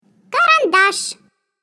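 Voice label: "pencil", Russian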